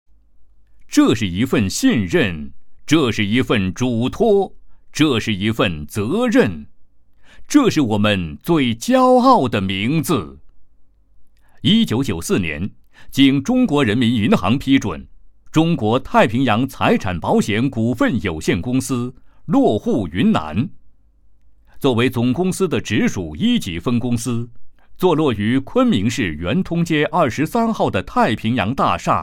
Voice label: 男, 宣传片; 太平洋保险云南分公司

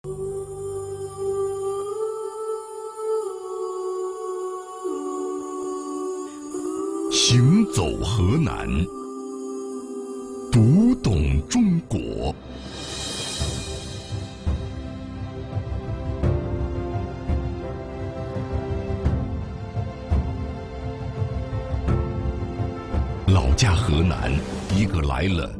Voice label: 男 宣传片; 行走河南; 读懂中国

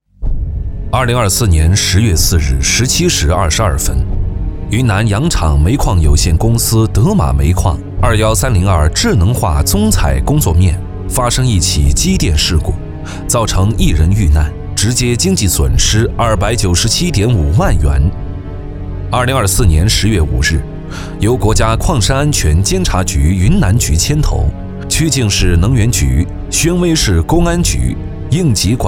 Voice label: 男 专题 警示片

